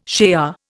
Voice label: "shea", Indian